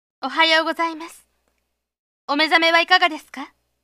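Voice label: female; 女の子; 朝の挨拶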